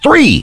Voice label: count, Spanish; Birthday Party Bash; Wii